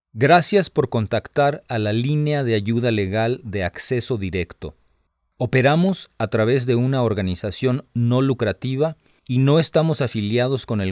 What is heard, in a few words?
Spanish, hotline